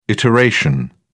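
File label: "iteration", British English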